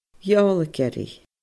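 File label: "Eòlaigearraidh", Scottish Gaelic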